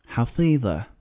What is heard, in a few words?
"hafiza", Arabic